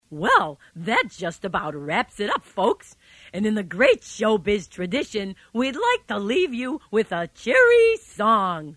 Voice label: Commercial Voice Demo; Track 20 Character voice